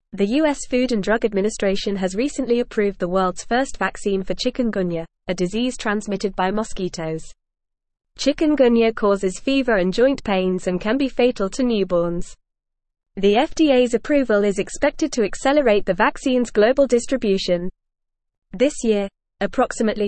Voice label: English Newsroom Advanced FAST Reading; FDA Approves First Chikungunya Vaccine Ixchiq for Global Distribution